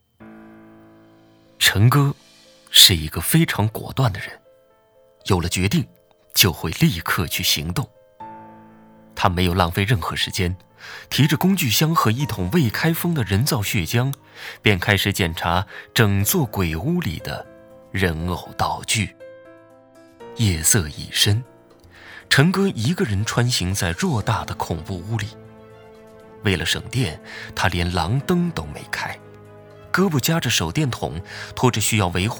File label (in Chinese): 悬疑故事旁白有声书